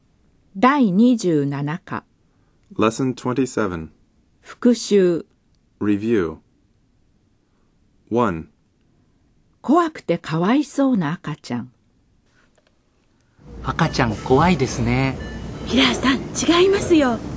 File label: Dialog